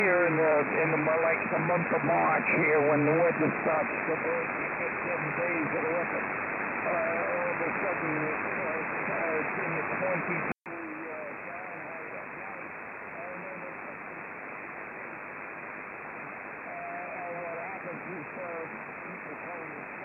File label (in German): Amateurfunk USB; 28530khz